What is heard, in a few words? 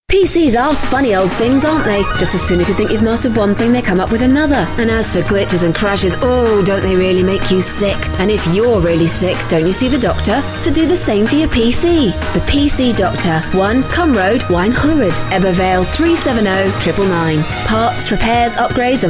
Adverts; Voice-over demos; TV Radio Advert